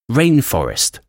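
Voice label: "rainforest", English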